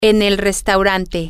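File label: in the restaurant, spanish, female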